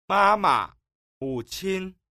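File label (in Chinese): ma ma, mu qin, Chinese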